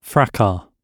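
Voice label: "fracas", English; OED audio and pronunciations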